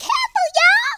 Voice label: "incoming", Southern Belle; speech